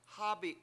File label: "rain", Washo